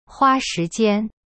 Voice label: "花时间 hua shi jian", Chinese; cyberchinese

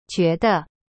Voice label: "觉得" jue de, chinese

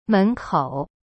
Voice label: "门口 men kou", Chinese; cyberchinese

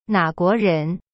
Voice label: "哪国人" na guo ren, chinese; cyberchinese

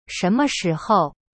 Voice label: "什么时候" shenme shihou, chinese

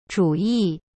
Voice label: "主意" zhu yi, chinese; cyberchinese

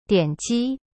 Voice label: "dian3ji1", Chinese; cyberchinese

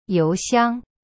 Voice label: "you2xiang1", Chinese; cyberchinese

